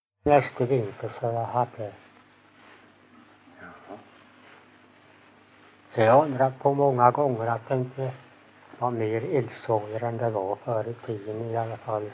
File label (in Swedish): Ingatorp SMÅL, dialekter; Folkminnen